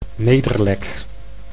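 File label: "Nederlek", Dutch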